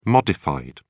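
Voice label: "modified", British English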